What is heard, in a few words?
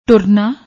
"turna", Punjabi